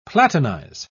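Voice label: "platinize", British English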